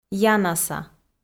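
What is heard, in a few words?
"янаса", Komi, female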